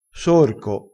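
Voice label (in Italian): "sorco", vocabolario dialettale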